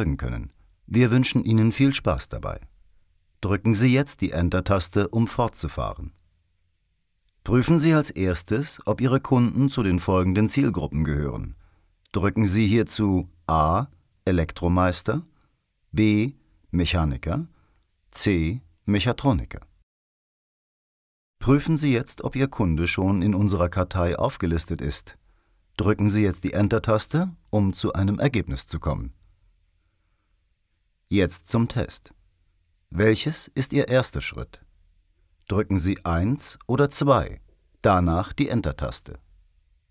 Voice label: e learning; sprecher